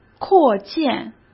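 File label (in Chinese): "扩建" Mandarin Chinese; chinese-flashcards; hsk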